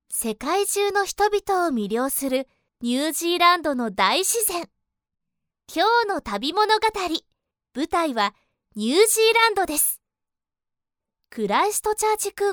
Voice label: female japanese voice over upbeat